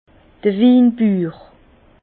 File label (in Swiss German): "winbuur", Bas Rhin; vin; viticulteur